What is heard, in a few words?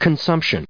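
"consumption", US English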